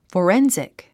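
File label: "forensic", English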